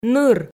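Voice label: "ныр", Komi, female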